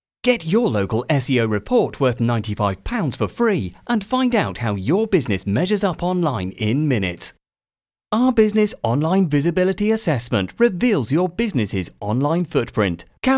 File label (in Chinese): 轻快 公司宣传